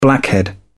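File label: "blackhead", British English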